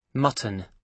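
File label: "mutton", British English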